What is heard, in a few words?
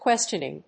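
"questioning", English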